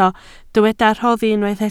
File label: "rhoddi", Welsh